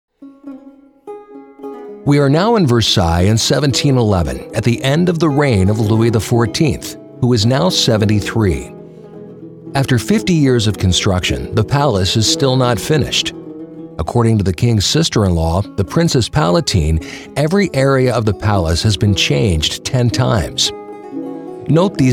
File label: Audioguide; Jugendfrei; NATIVE PROFISPRECHER